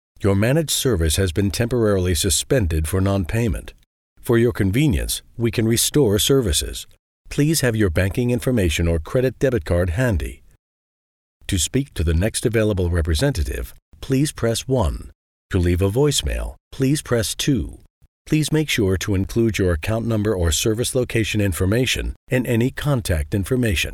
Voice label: Customer Support IVR